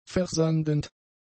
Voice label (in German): "versandend", german; adjectives